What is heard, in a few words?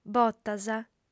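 "botas", female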